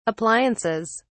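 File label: "appliances", British English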